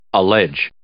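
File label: "allege", English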